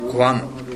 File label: "gowan", minamiyamagata